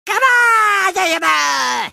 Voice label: battlecry; tf; crash